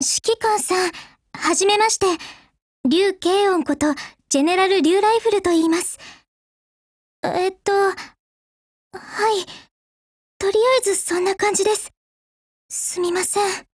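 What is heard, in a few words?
GAIN, Japanese; GeneralLiu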